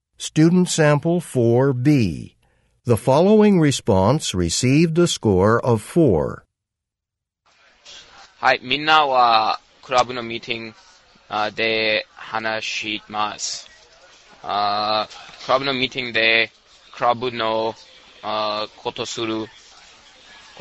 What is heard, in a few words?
japanese convo